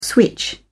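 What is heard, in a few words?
"switch", British English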